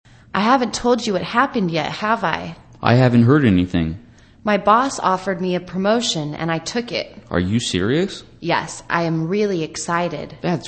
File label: english conversation Expressing joy